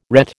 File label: "ret", American